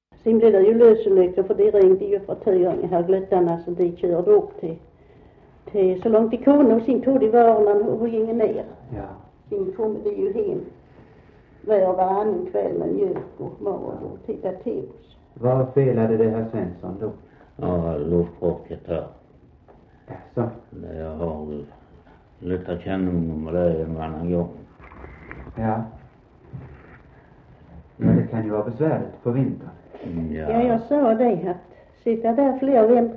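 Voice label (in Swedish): Västra Torup Skåne, dialekter; Folkminnen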